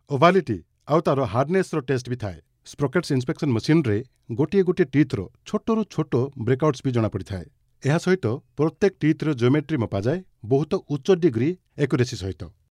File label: ORIYA MALE